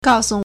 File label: "gao4 su", Chinese